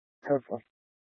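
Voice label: Police Dispatch, scanner audio recording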